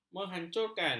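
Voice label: "menghancurkan", Malay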